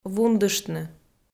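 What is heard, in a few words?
"вундыштны", Komi, female; audio dict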